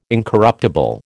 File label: "incorruptible", American